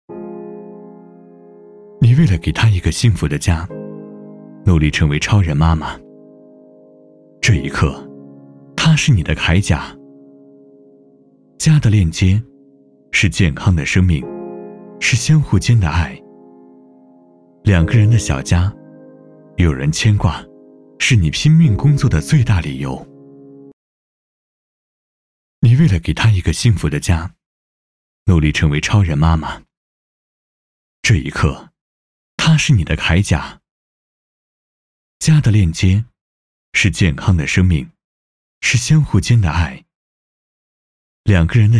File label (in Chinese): 男 公益 广告 家